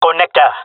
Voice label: connector, jungle male; player vo; Counter Strike Model Pack; CS:GO

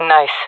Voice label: compliment, jungle fem; player vo jungle fem

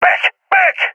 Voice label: request fallback, balkan; vo; balkan epic

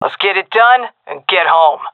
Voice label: round start, fem; vo; agents; Source Model Packs; Counter Strike Model Pack; CS2

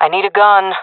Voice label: request weapon, jungle fem; player vo; Counter Strike Model Pack; CS:GO

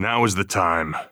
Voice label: radio lock n load

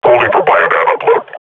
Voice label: combat idle, grunt

